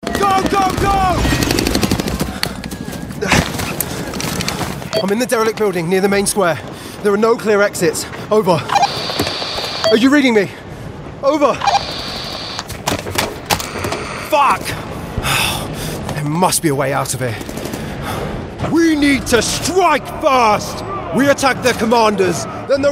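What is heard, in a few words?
estuary voice videogame, Estuary English Male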